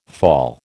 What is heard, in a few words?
"fall", American